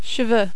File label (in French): "cheveux", French